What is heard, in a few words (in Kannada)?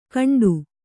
"ಕಂಡು", Kannada